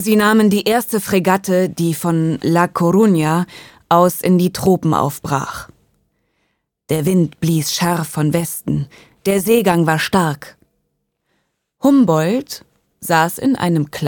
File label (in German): Hoerbuch